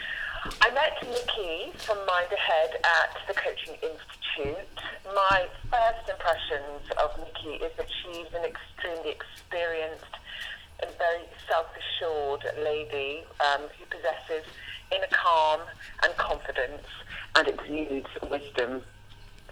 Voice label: voice over; mind ahead